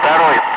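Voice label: Russian bright; Ka-50; Wingman; DCS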